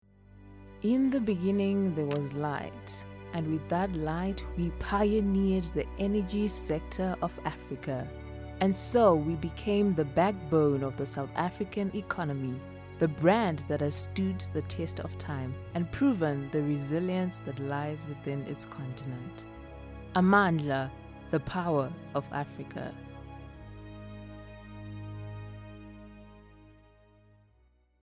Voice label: English Corporate

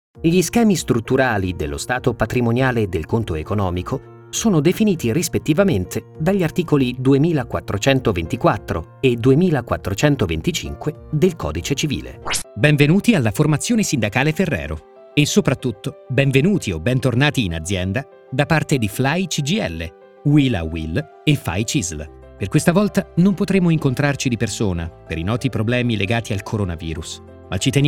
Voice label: Corporate e-learning

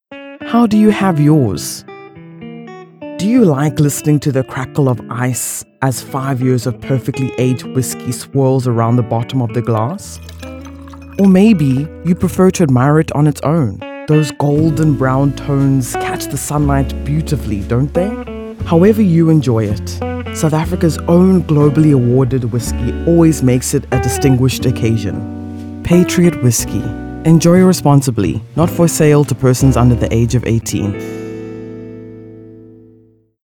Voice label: Soft Sell Luxury Sensual

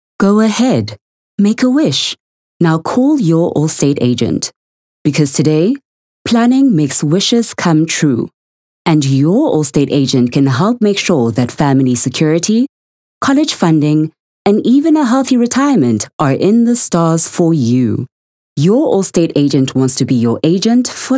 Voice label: Corporate

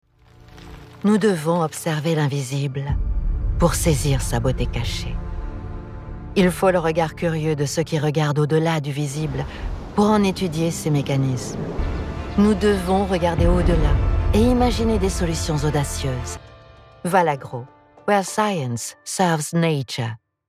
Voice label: Corporate; Valagro

